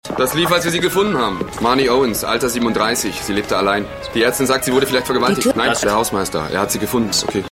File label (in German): Polizist, Stimmproben; Law & Order Special Victims Unit; Polizist Harry Martin